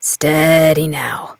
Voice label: Horse Calm Adult; Duviri

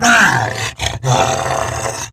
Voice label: Pain Grineer Wolf, en; Battle Barks

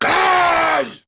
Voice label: Attack Grineer Ghoul Sargeant, en

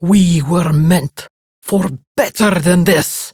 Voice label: Patrol Prime Grineer Female; Tau; Battle Barks

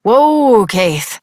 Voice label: Horse Stop Walk Adult; Duviri

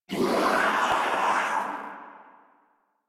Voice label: Death Sniper, en

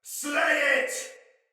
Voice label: See Ally Hit, Soldier Male; Sinecure Soldier Male A

